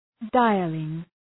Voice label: "dialing", English